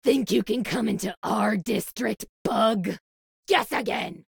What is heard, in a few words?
thug inital dialogue; Pitch Black - A Detective's Demise